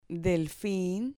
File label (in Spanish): "delfin", Spanish